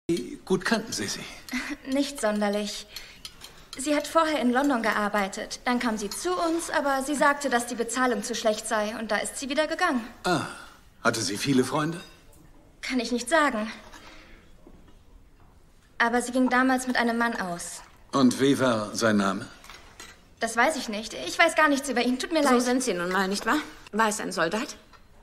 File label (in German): Synchron; Inspector Foyle